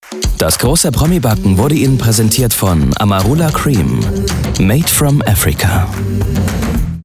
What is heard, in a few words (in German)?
Spots OFF, maenner; Amarula